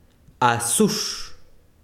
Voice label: besiro hoja, chiquitano